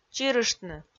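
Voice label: "чирыштны", Komi-Zyrian, female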